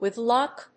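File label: "with luck", English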